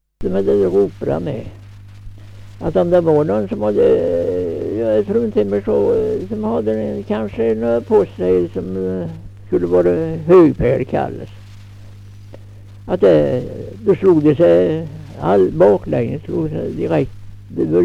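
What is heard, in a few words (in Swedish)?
Nysund Närke, dialekter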